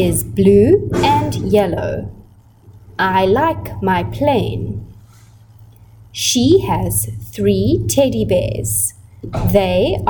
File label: A reading aloud